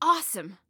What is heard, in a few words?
Compliment "Awesome", Female